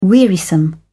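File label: "wearisome", British English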